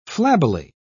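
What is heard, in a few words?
"flabbily", British English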